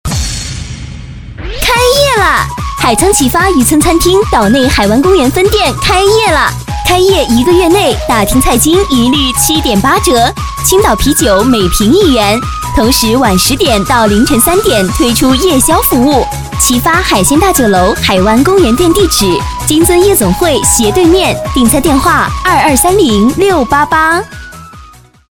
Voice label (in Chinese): 女 广告 餐厅 激情 甜美; 海沧旗发渔村餐厅